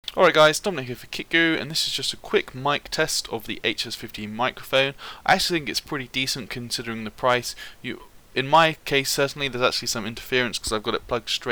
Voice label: mic test